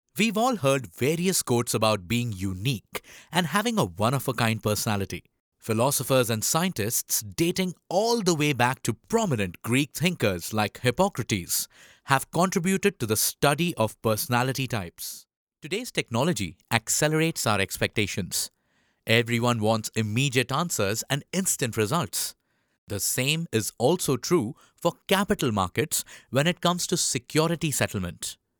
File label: Hindi-accented F English E-Learning